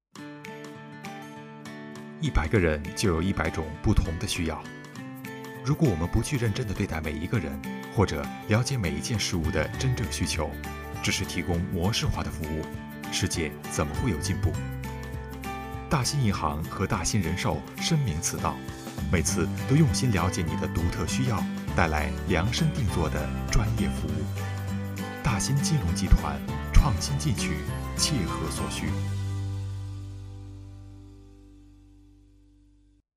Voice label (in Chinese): 广告类金融集团